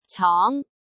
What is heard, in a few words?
"cong2", cantonese